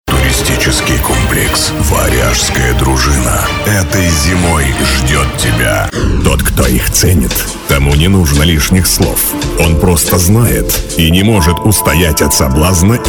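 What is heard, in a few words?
Russian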